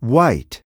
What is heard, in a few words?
"white", spanish